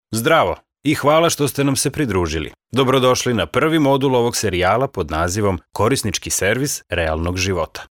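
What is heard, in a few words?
Serbian Serbia male narrative; serbian voice over talent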